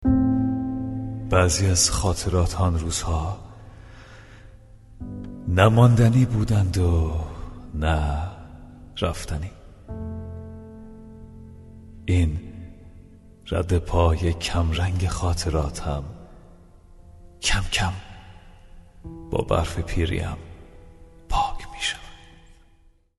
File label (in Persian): نمونه صدای آقای